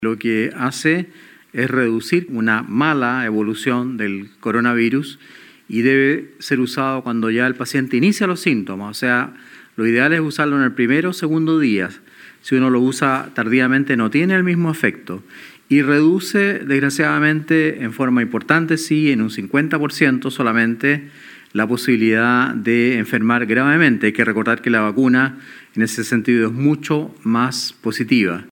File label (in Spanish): cuña; PARIS